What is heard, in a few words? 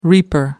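"reaper", British English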